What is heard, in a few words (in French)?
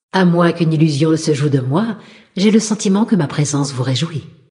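Female Flirt, voix françaises; Sacrenuit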